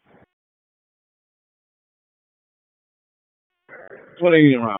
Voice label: FIRE OPS, p25

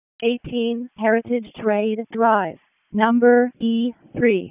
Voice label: EMS ALERT; p25; wake

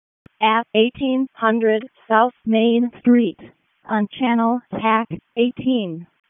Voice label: EMS ALERT; wake; p25

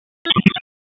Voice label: Police Spec Ops Tac 4, p25; wake

